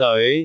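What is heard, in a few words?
"deoi5", Cantonese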